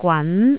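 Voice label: "gwan2", Cantonese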